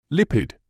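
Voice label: "lipid", British English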